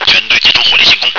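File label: stick together, radio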